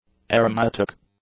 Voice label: "aromatic", English; adjectives